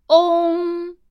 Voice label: "ong", Chinese